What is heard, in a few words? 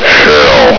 "hell", Combine soldier; hl2